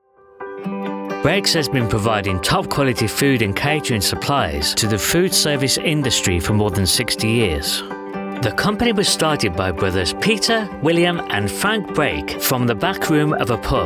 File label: Corp Group